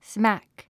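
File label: "smack", English word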